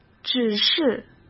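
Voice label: "只是", Mandarin Chinese; hsk; chinese-flashcards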